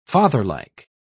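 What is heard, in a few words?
"fatherlike", British English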